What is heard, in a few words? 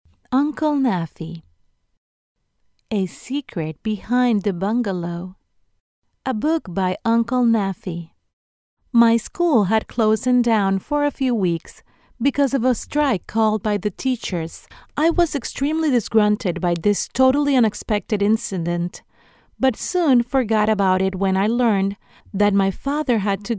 english audiobooks